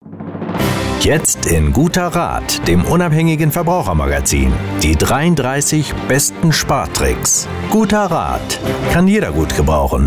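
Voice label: German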